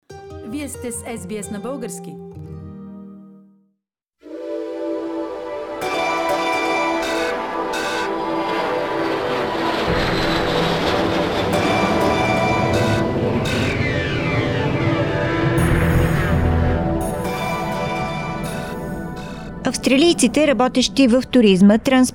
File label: bulgarian; Optimism for the Tourist Industry in Australia; SBS Bulgarian